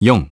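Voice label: "four", English; iOS 4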